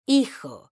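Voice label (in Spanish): "hijo", Spanish, female; mekanta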